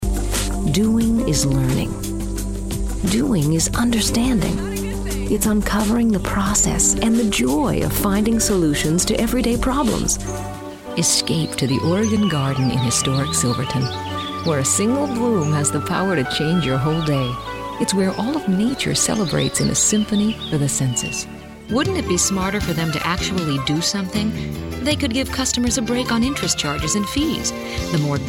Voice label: voice over